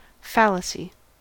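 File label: "fallacy", American English; pronunciations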